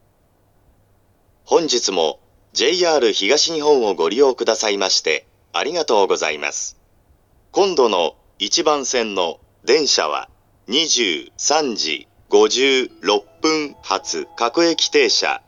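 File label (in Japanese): 到着予告放送, ATOSタイプF(男声), 青梅線, 深夜帯に運行される4両の立川行です。; 2356発 各停立川行 4両; 牛浜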